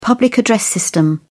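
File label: "public address system", British English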